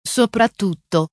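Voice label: "soprattutto", Italian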